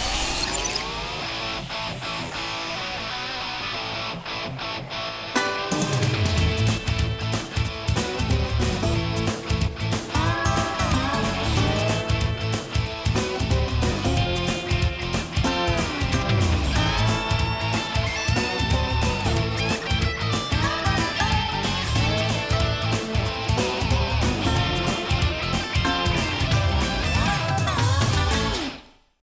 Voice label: Breakfast Show Intro with Music Bed Tail Out Female